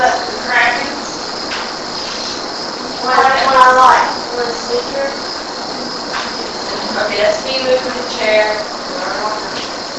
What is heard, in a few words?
Master Bed Room Unexplained Childs Voice (Want a sticker) AMPLIFIED